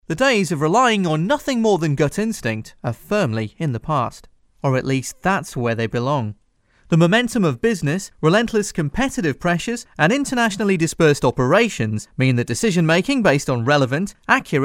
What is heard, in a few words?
Corporate, UK; Voice-over demos